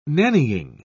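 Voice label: "nannying", British English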